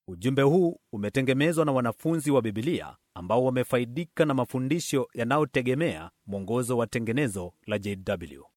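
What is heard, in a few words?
Swahili, male, commercial; voice-over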